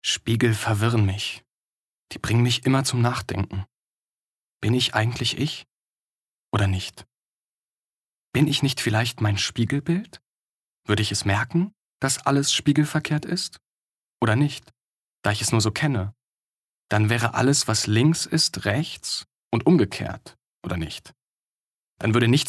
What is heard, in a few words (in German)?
Innerer Monolog